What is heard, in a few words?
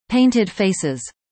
fast; assessment